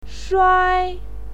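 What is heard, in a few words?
"shuai", Mandarin Chinese, first tone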